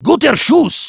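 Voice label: nice shot, German; "Guter Schuss!"; Heavy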